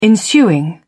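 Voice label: "ensuing", British English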